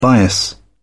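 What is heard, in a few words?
"bias", British English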